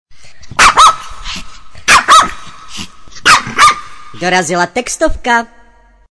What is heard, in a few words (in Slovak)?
sms hlásky cz